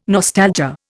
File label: "nostalgia", Indian